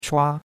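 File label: "chua1", Chinese; Cours de Chinois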